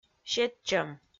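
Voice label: "сетчӧм", Komi, female; kpv audio dict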